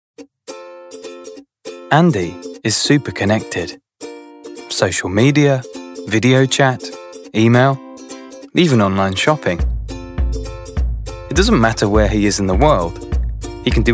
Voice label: English British e-learning

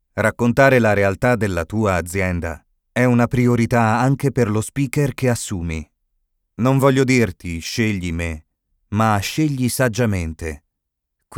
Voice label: italian corporate videos

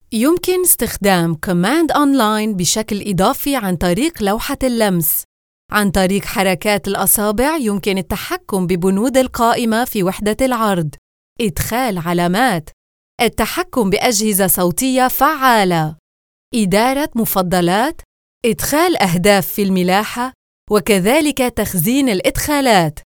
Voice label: arabic e-learning; locutora árabe; trabajo desde mi propio estudio de alta calidad

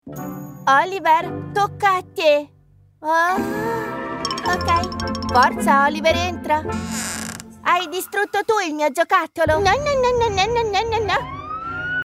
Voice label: italian animation

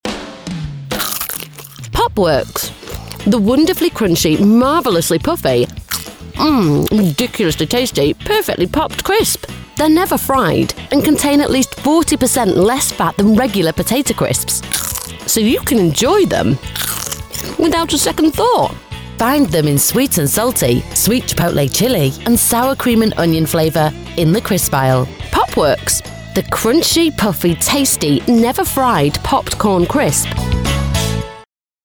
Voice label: English British promos

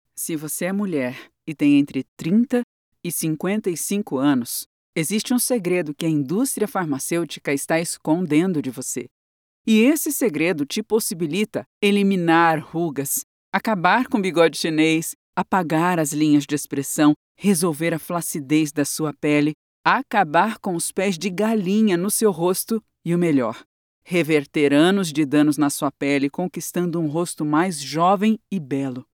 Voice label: Portuguese Brazilian narration

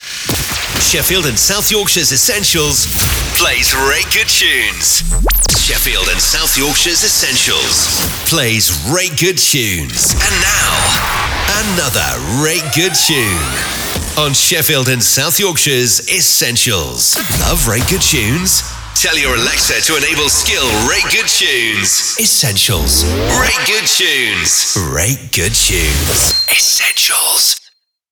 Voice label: english british radio imaging

